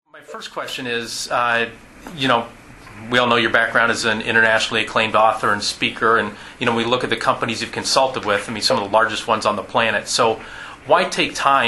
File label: interview